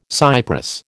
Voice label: "cypress", American

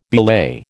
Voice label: "belay", American

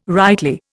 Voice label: "rightly", Indian

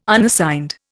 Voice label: "Unassigned", Indian

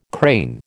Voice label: "crane", American

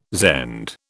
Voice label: "Zend", British

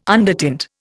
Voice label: "undertint", Indian

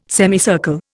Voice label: "semicircle", Indian

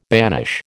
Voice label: "banish", American

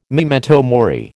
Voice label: "memento mori", American

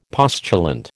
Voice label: "postulant", American

